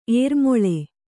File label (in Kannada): "ಏರುಮೊಳೆ", Kannada